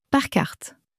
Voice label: "par carte", French

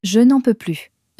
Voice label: "je n en peux plus", French

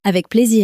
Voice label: "avec plaisir", common french words

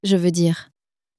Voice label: "je veux dire", French

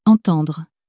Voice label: "entendre", French

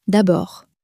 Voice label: "d abord", French

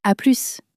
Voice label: "a plus", french

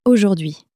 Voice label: "aujourd hui", french

